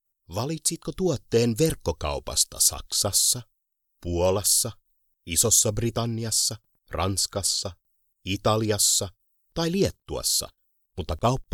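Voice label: finnish interactive voice response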